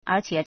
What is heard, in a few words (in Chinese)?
"er2qie3", Chinese